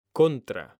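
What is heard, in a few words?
"CONTRA", Spanish, male; mekanta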